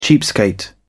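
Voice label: "cheapskate", British English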